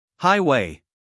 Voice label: "highway", us male